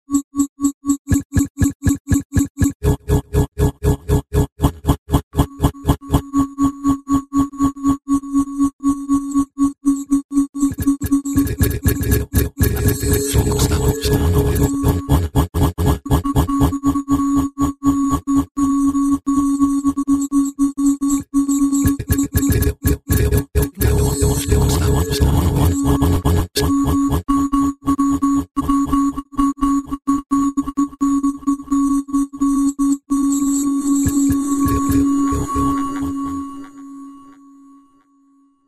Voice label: commercials